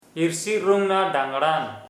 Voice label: "grow up unmaried boy", Sora